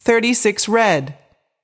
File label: voice over, roulette; frenchroulette3